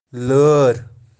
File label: "laaer", Kashmiri